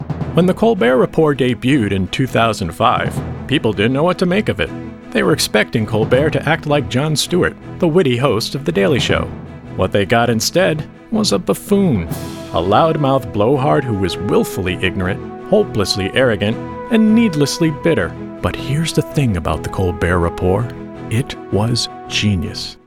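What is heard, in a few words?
Narration lively enthusiastic, male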